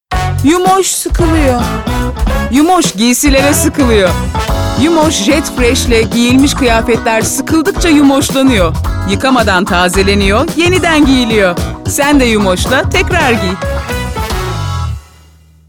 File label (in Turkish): reklam; yumos